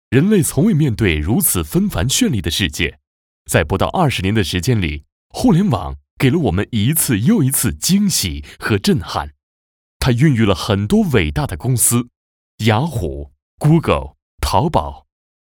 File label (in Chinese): 男 互联网 科技现代, 国语男声配音